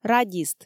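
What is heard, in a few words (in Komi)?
"радист", Komi, female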